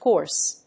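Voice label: "corse", English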